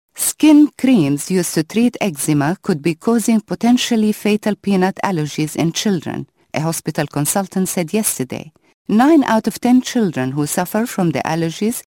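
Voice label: Arabic, accented English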